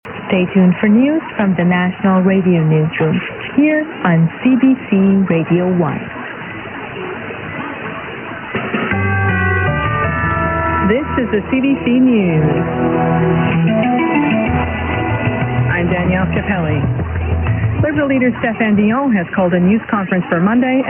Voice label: cbc news; mw dxing